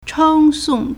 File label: "cheng1song4", Chinese